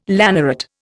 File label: "lanneret", Indian